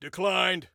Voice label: Command Declined, Soldier Male